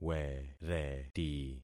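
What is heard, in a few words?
"wereti", concatenated syllables; Affixing experiment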